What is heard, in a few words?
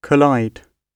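"collide", British English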